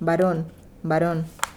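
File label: "baron varon", Spanish; Madrid; homophon [baˈɾon]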